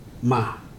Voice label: "finish", Ibibio

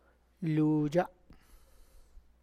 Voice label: "lo na", Tlacochahuaya Zapotec; my tongue

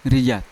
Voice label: "rija" brother, Yawa

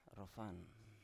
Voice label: "rofan", Biak

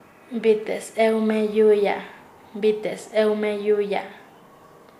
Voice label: Nasa Yuwe; hacer dano a una persona; paez

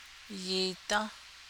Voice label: navajo particle; yiidą́

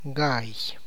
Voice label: "rooster", tlacochahuaya

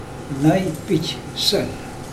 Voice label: my black pig, mokilese

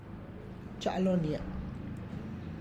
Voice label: I am going to the field, Tlacochahuaya Zapotec; (Spanish) voy al campo; txa' lo nia

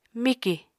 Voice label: "mikì", Apatani

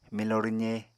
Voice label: "milo rwze", Apatani

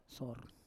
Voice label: "sor", Roon

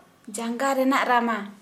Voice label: Santali toenail

